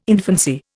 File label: "infancy", Indian